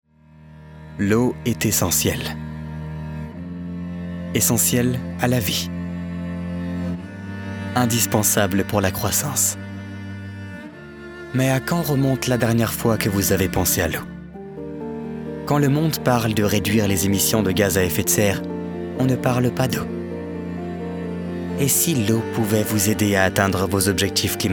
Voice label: calm storytelling eco